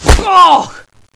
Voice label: pain